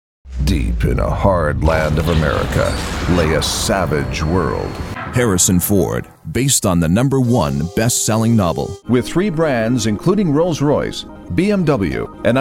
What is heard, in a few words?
english canadian commercial